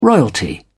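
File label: "royalty", British English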